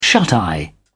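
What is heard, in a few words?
"shuteye", British English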